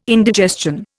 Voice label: "indigestion", Indian